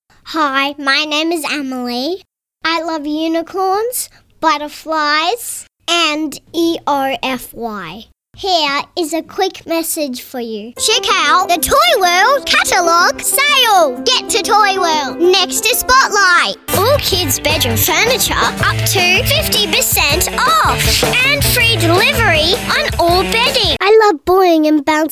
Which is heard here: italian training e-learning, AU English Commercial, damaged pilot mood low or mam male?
AU English Commercial